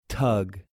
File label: "U" "Tug"